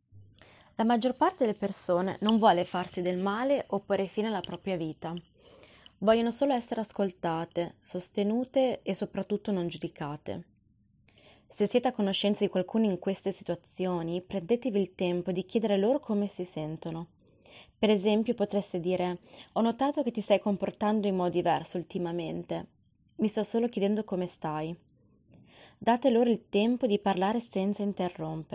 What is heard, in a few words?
Italian; mental health services